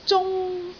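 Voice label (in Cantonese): "zung1", Cantonese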